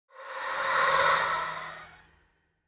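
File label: Whisper, Korean; voices; heroes; Xerah; kings raid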